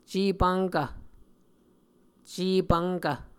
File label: "cepvnkv", muscogee